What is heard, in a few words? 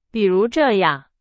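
Chinese, example sentence; hsk2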